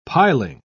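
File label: "piling", British English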